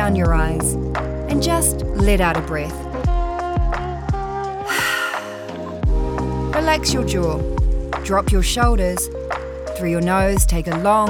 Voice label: meditation app